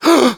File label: reaction startled, manager, survivor, voice; Left 4 Dead 2; left4dead2 dlc2